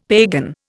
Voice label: "pagan", Indian; Dictionary